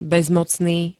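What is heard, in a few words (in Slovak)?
"bezmocný", Slovak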